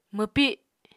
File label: "mwpi", Apatani